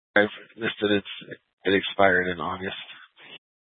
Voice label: Mesa County Sheriff Dispatch

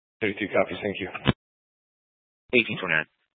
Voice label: Fire Dispatch

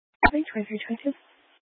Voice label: Police Department Dispatch; Grand Junction

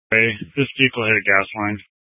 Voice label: Fire Dispatch; scanner

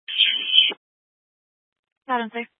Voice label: Fire Department Dispatch, scanner

